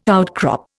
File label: "outcrop", Indian